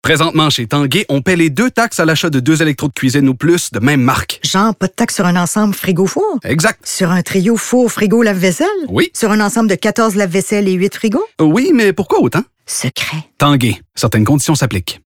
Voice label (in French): Publicité; Tanguay